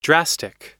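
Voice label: "drastic", US English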